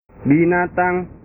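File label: "binatang", Indonesian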